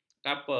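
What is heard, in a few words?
"kaper", Malay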